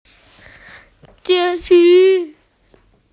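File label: "zhi hui", Chinese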